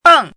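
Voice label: "beng", Mandarin Chinese, fourth tone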